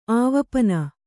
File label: "ಆವಪನ", Kannada